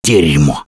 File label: reaction negative, russian; Gambler